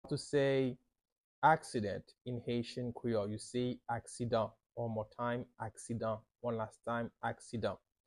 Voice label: How to say Accident in Haitian Creole – Aksidan pronunciation by a native Haitian Creole teacher